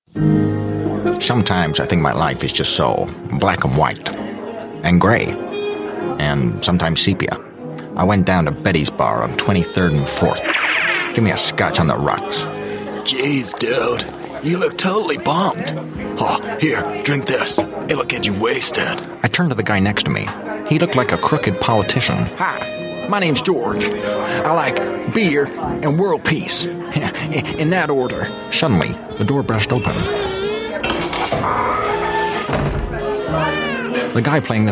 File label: character